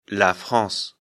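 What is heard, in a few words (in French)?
"France", French; afrikalan; lecture fr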